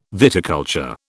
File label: "viticulture", British